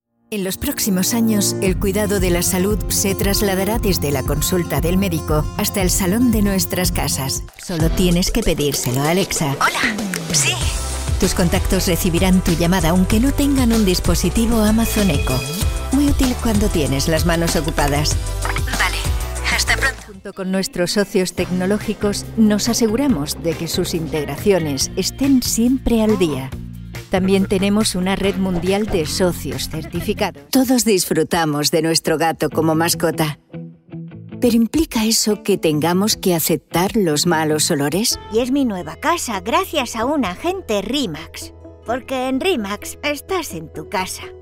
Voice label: spanish EU corporate commercial promo